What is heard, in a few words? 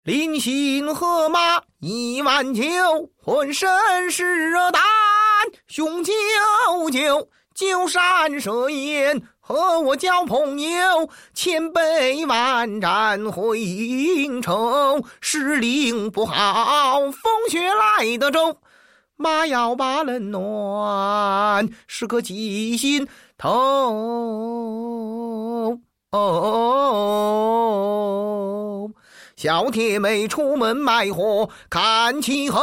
Male LaoSheng Dialogue Libretto; Long; COPO